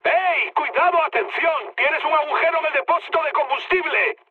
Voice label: damaged oil, mood high, speech; War Thunder